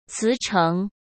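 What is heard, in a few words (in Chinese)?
"辞呈", Mandarin Chinese; hsk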